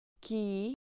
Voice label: "qui", Spanish